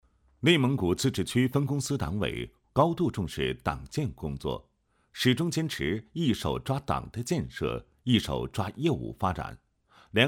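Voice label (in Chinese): 企业党建微党课配音; 中国人寿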